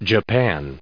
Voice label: "japan", en us